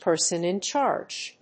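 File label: "person in charge", English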